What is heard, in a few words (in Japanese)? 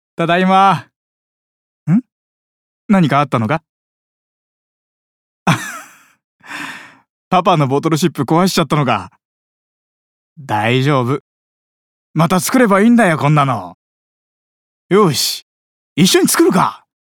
優しい父親